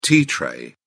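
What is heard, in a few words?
"tea tray", British English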